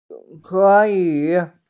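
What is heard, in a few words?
"can", Mandarin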